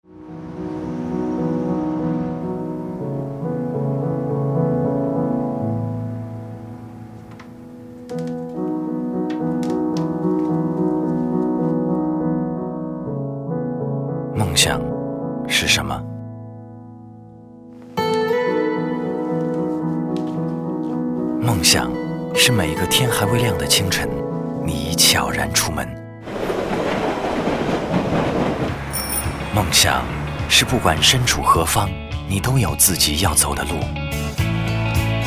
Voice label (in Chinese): 男 广告 自然风格 国语男声配音; 大众30周年